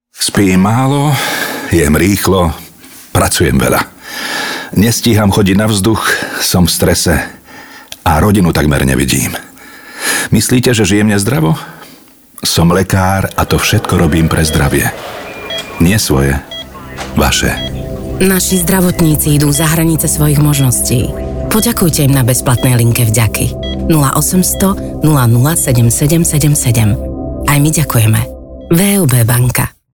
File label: radio; vub linka vdaky